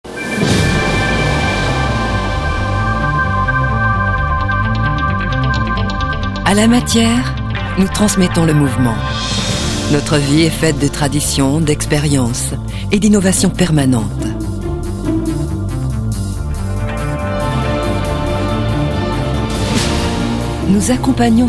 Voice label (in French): film institutionnel; SNR